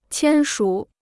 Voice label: "签署", Chinese

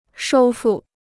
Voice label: "收复", Chinese

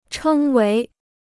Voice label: "称为", Chinese

